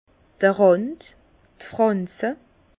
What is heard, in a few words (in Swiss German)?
"rand", Bas Rhin; le bord la frange; vin